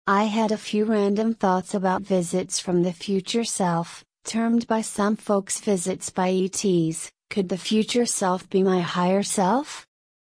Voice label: substitute voice rate slow pitch medium; alice; karen